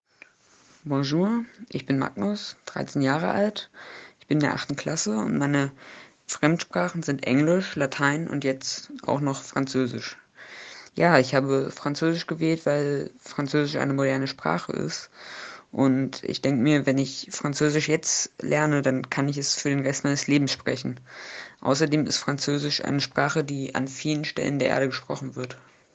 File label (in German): franzoesisch; dritte fremdsprache